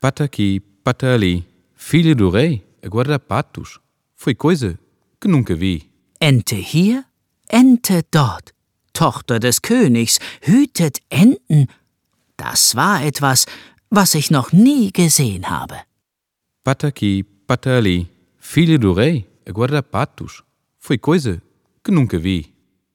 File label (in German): Portugiesisch, Kinderverse